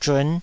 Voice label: "zhun5", Taiwanese